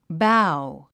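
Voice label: "bow", English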